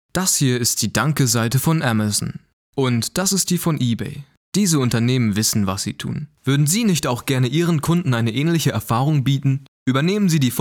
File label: GERMAN